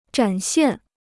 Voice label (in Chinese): "展现", Chinese